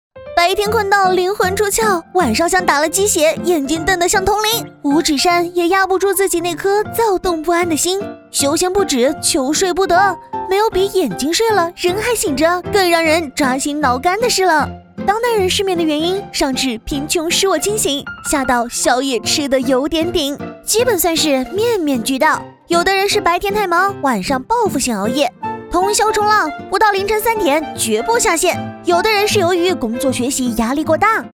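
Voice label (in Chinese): 女国大音-熬夜MG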